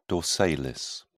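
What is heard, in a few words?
"dorsalis", British English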